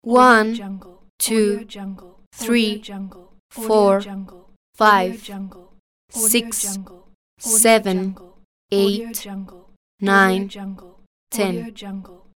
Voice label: female voice numbers 1 to 10

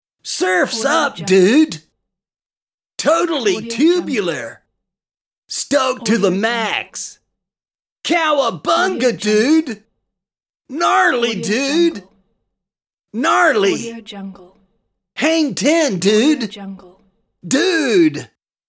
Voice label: surfer dude expressions

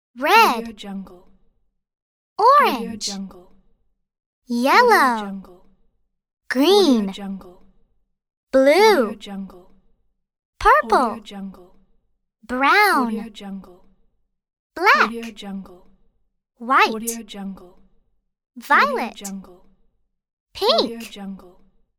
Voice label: cartoon girl naming colors

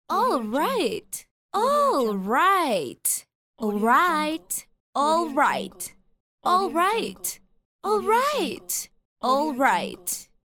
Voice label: teenage girl saying alright pack

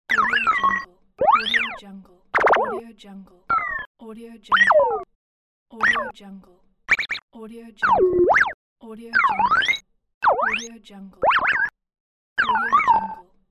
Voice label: emotional robot talk

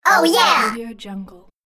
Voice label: cartoon characters saying oh yeah

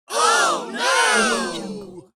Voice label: cartoon comical character group oh no

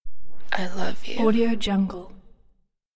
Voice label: woman says i love you

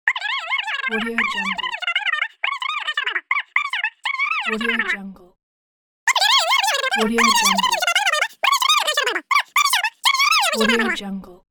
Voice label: telephone fast chipmunk babble

